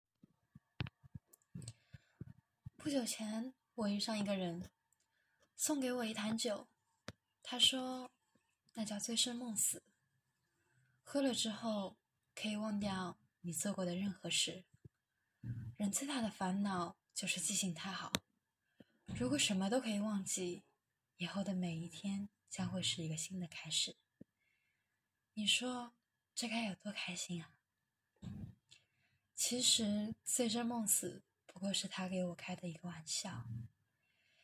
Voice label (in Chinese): 感情